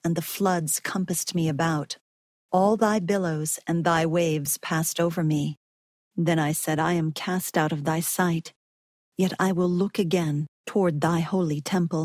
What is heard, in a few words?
jonah female voice; jonah 02; the old testament